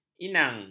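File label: "inang", Malay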